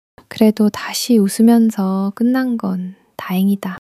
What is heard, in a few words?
joy